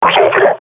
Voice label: combat idle, grunt; Half Life Model Pack